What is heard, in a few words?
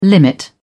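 "limit", British English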